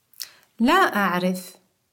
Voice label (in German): weiss nicht, Arabisch; Interkultur; LearningApp